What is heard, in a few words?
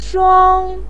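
"shuang1", Mandarin Chinese, pinyin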